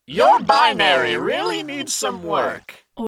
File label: robot vocal your binary really needs some work male computer voice